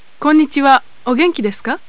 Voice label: japanese; Golden Record